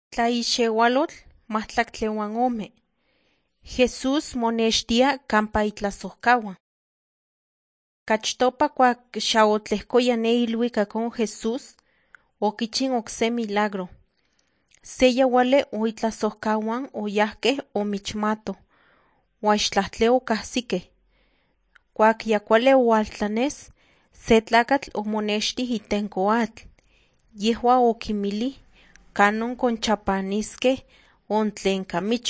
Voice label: nahuatl guerrero tlapa; the bible league nt drama